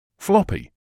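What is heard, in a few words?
"floppy", British English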